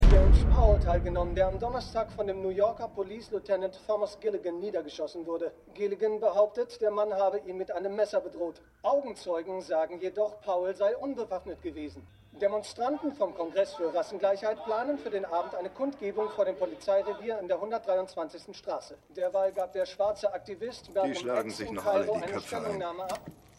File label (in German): TV Nachrichtensprecher; Winning Time